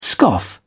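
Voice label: "scoff", British English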